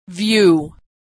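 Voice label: "view", American English; vowel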